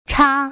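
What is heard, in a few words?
"cha", Mandarin Chinese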